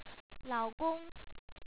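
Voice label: "la3ogo1ng", Chinese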